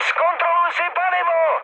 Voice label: tank damaged, speech cze, mood high; War Thunder

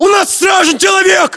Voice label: reaction casualty generic, ru; localized english

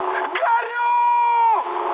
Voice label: on fire, mood high, speech ru; War Thunder

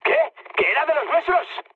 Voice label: player killed ally, mood med, speech; War Thunder

